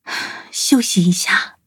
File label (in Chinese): defeat, zh-hant; cookie run